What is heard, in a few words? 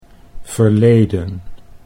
"verleden", Dutch